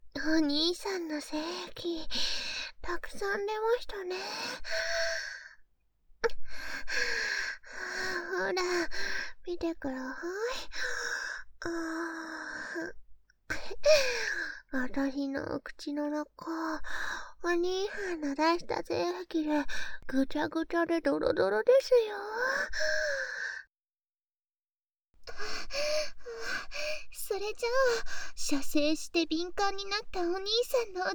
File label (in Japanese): 淫語×耳舐め×フェラ